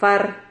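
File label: "far", Spanish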